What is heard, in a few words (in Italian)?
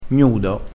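"gnudo", vocabolario dialettale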